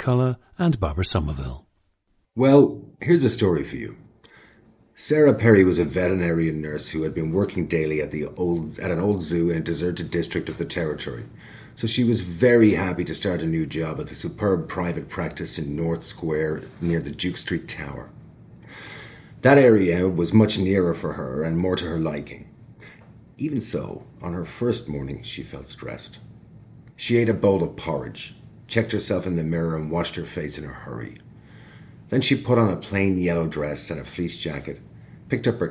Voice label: ireland, dialects

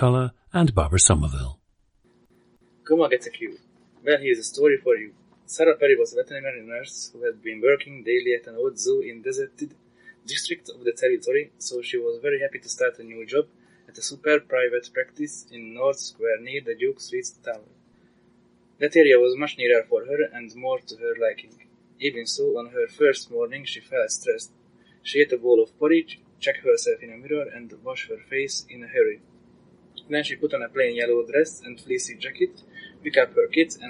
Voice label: hungary, dialects

